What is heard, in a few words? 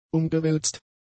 "umgewälzt", German; adjectives